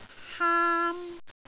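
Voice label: "haam1", Cantonese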